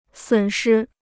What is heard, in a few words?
"损失", Chinese